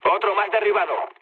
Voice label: player killed enemy, mood low, speech